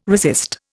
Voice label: "resist", Indian